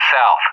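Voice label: "S", English; JTAC; DCS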